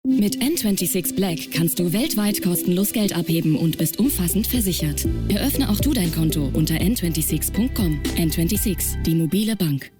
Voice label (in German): werbung spot; n26